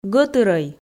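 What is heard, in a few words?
"гӧтырӧй", Komi, female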